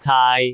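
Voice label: "caai6", Cantonese; google D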